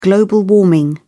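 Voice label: "global warming", British English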